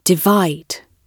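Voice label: "divide", British English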